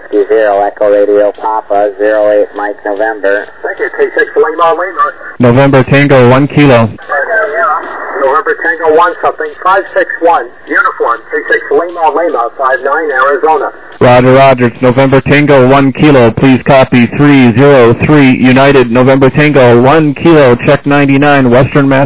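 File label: upper sideband 21MHz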